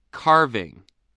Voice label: "carving", spelling